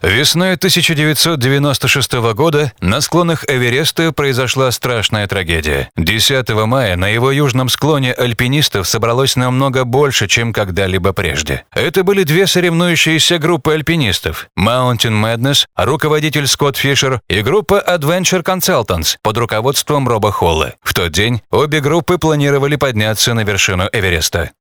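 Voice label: zg moderate dynamic, Russian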